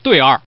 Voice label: Man